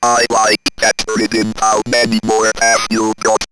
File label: Robot Like Dat Riddim, robot vox; X-Static Goldmine